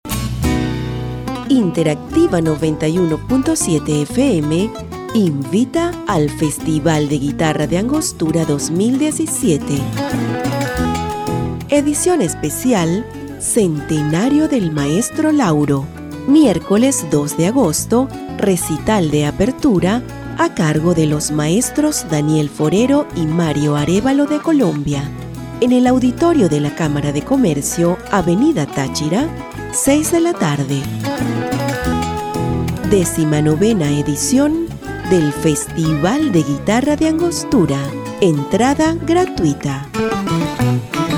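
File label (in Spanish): corporativo, Venezuela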